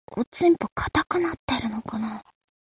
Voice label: mob 女子 台詞

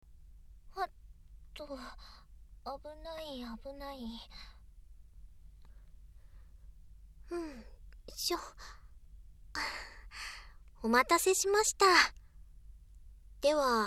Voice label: 耳かきで心のケアを（声のみ~ノイズ除去なし）; 耳かきヒーリング; ダミーヘッド&ダミーボディマイク使用

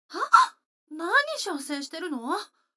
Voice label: 女の子台詞

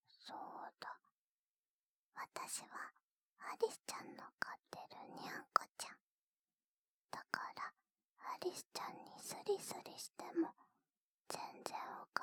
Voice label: ささやき癒しパート, ASMR; 参の朝

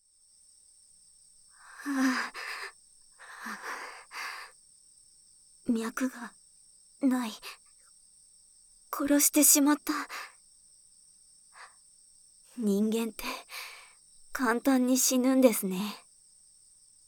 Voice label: バッドエンド, ASMR

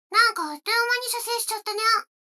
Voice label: 女の子 台詞, ASMR

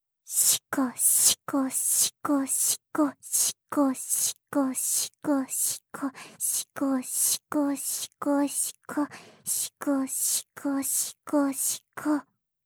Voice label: シコシコオンリー ふつう

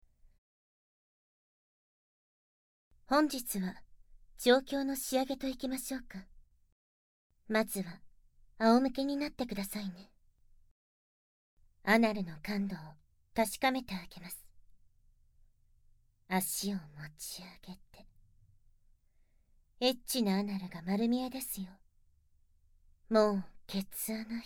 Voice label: 効果音あり 手コキ・耳舐め・ささやき・乳首責め, ASMR; 調教の総仕上げ; 逆アナル正常位・手コキ・耳舐め・ささやき・乳首責め・逆フェラ・逆口内射精・逆お掃除フェラ

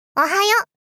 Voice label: おはよう！ 少女×中音域

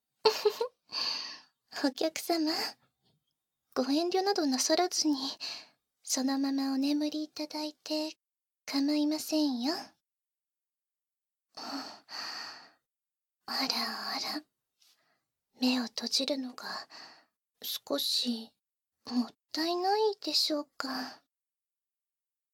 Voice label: 膝枕添い寝（バイノーラルマイク収録 効果音あり大きめ）

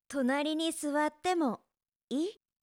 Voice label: 隣に座ってもいい, 恋人に甘える口調

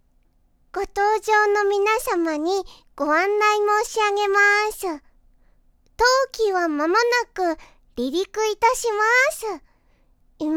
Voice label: 機内アナウンス; ロリビッチCA

